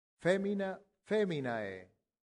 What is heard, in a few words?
"femina ae", Latin; santillana